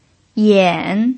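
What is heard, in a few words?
"yan3", Mandarin Chinese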